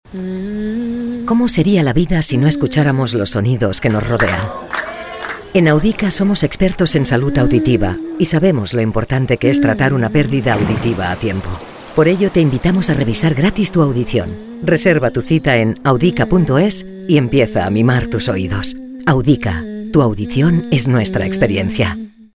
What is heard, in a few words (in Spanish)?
Femenino